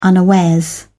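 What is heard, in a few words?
"unawares", British English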